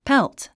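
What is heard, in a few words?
"pelt", English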